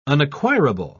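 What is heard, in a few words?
"unacquirable", British English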